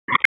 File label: Raleigh Police Southwest District Tac, p25